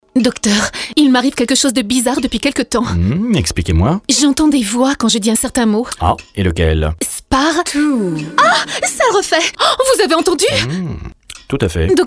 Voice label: MAXI SPOT